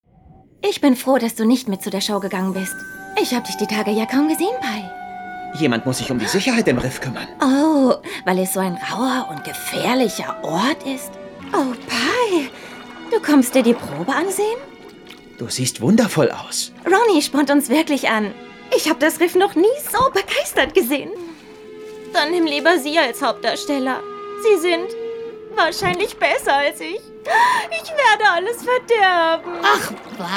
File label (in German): Schauspiel Niedlich